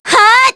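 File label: Vox Casting, Korean; Aselica; kingsraid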